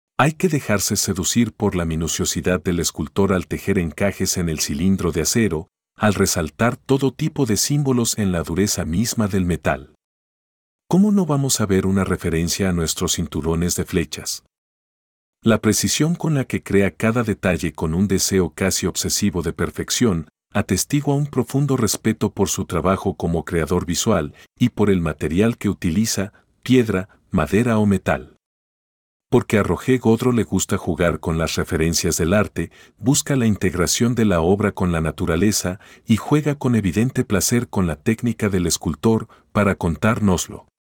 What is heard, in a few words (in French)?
espagnol